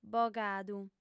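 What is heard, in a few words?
"bogadu", female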